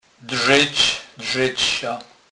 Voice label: "drzec", kurpiow

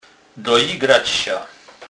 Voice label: "dojigrac", kurpiow

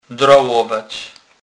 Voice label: "dralowac", kurpiow

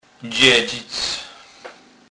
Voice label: "dzedzic", kurpiow

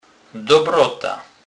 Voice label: "dobrota", kurpiow

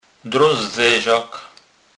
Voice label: "drazdzezak", kurpiow

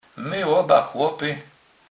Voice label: "loba", kurpiow

